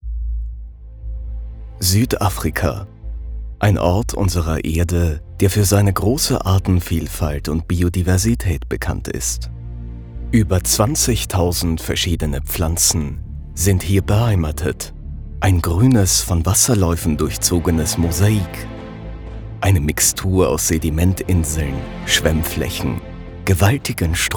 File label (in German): Sprechprobe DOKUMENTATION